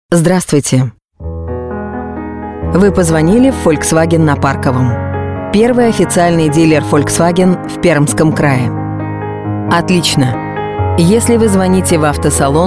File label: IVR; Volkswagen